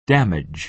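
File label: "damage", American English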